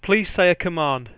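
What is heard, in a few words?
English "please say a command"; Samsung SGH-i310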